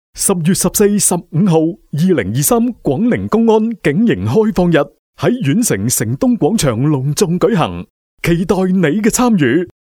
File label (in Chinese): 粤男 活动预告; 警营开放日